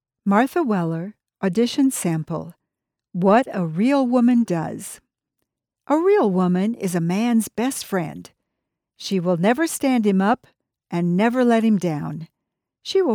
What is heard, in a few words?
Humorous Motivational